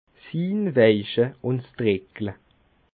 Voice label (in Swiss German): "drickle", alsace